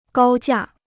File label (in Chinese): "高价", Chinese